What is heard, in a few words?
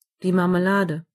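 jam, German voices words; gcompris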